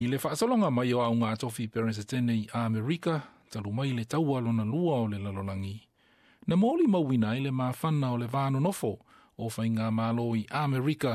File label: samoan; SBS Samoan; Aafia le va nonofo i le mataupu i tagata sulufa'i.